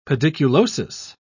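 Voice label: "pediculosis", English, British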